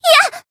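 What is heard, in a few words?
Voice damaged, Japanese; radica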